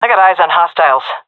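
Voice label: sees enemy, female; vo; Counter Strike Model Pack; CS:GO; seal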